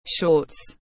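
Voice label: "shorts", English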